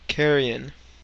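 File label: "carrion", US English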